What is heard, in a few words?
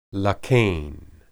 "laken", Hebrew